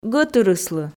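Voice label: "гӧтырыслы", Komi, female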